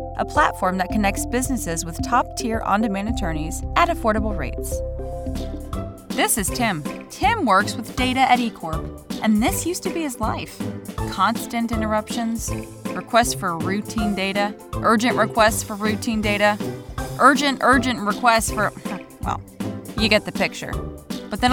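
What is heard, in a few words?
voice-over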